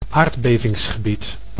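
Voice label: "aardbevingsgebied", Dutch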